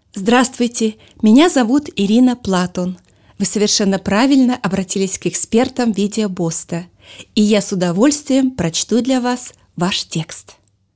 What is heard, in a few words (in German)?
Russisch; internationale voiceover